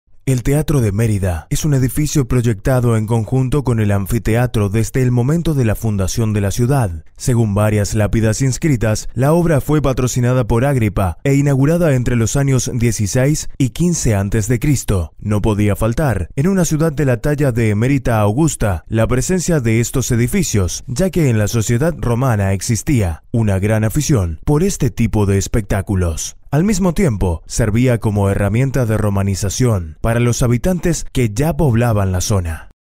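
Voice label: Spanish narration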